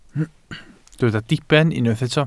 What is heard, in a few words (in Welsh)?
"diben", Welsh